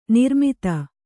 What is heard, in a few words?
"ನಿರ್ಮದೆ", Kannada